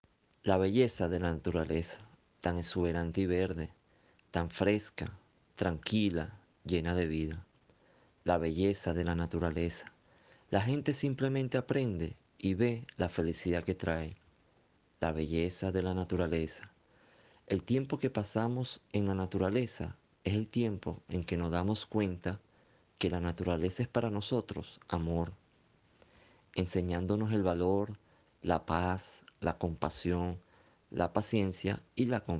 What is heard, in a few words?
Poema SPA